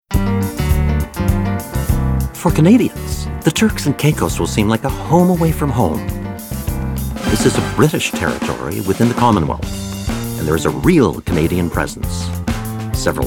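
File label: Commercial, male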